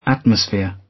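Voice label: "atmosphere", British; Space, the planets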